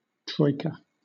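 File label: "troika", British English; pronunciations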